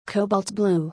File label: "Cobalt Blue", English